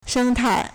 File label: "sheng1tai4", Chinese